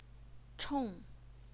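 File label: "chong", fourth tone, Chinese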